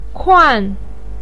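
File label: "kuan", Mandarin Chinese, fourth tone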